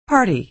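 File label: "party", American English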